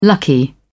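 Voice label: "lucky", British English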